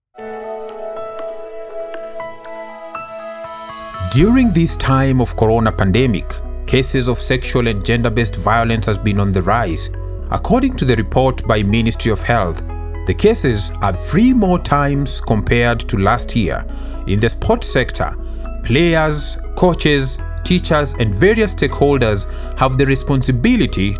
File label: english radio spot